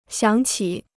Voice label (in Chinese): "响起", Chinese